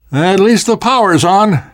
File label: world hospital, namvet, survivor voice